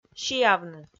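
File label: "сиавны", Komi, female; kpv audio dict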